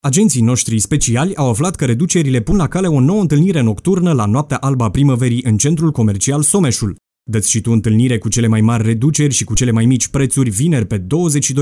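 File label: Romanian male Spot Publicitar Radio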